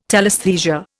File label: "telesthesia", Indian